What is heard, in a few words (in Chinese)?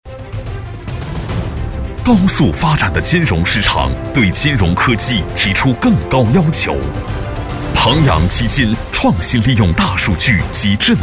男 企业宣传片 基金投资; 男6-高端大气 大气浑厚